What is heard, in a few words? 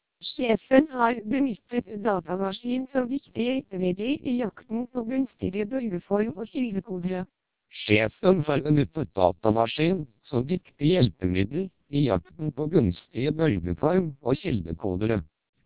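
TWELP 400 bps Vocoder, Norwegian speech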